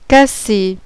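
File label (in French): "casser", français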